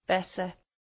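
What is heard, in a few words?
"better", English, Liverpool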